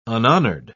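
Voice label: "unhonoured", British English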